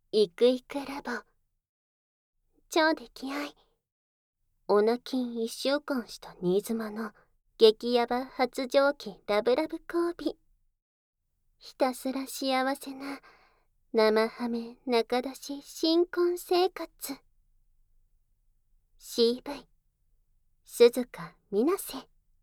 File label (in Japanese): タイトルコール